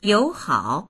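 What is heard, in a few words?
"you3hao3", Mandarin Chinese; 友好; 友好的である; dictionary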